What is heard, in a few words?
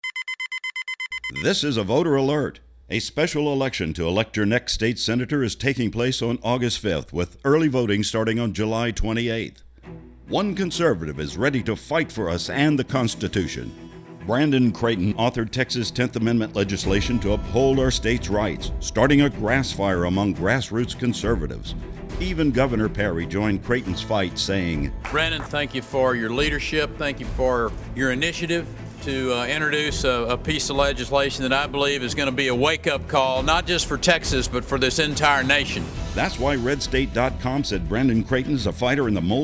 radio spot